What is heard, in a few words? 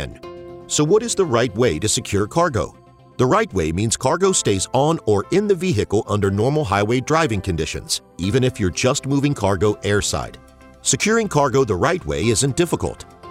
Carge Securement eLearning